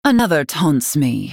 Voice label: female night elf error taunt